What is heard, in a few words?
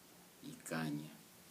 "to thank", Kibembe